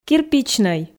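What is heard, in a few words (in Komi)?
"кирпичнӧй", Komi, female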